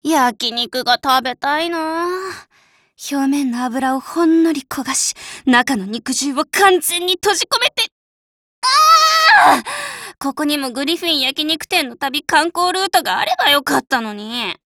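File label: DIALOGUE, Japanese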